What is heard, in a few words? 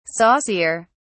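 "saucier", English, British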